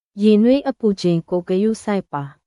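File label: Myanmar, burmese; 介護施設; ミャンマー; 介護施設でミャンマーの人と一緒に働いている方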